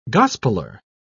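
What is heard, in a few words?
"gospeler", English, British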